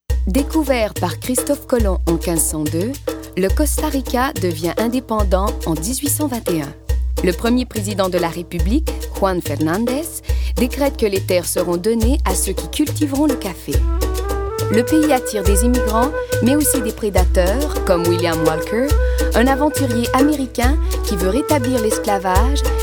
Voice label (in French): narration